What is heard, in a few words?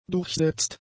"durchsetzt", german; adjectives